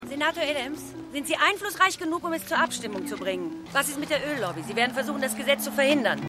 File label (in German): Reporterin; Outer Limits